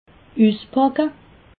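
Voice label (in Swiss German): "uspacke", Alsatian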